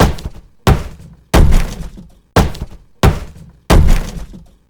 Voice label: angry; scuttlebutt